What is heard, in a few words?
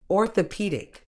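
"orthopaedic", medical English, British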